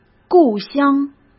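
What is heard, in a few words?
"故乡", Mandarin Chinese; chinese flashcards; hsk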